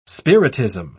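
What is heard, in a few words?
"spiritism", British English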